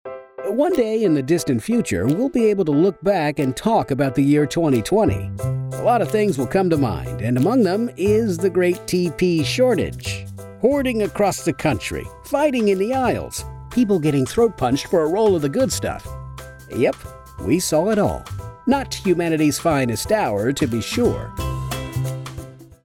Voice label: Explainer video Narration Humorous